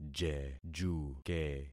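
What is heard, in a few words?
"jejuke", concatenated syllables; Affixing experiment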